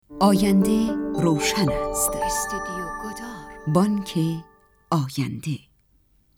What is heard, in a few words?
commercial